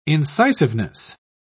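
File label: "incisiveness", British English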